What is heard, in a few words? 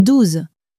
"douze", French